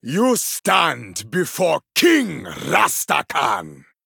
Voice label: Male Troll Start